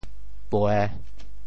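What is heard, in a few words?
"pue3", Teochew; 背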